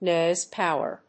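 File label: "noise power", English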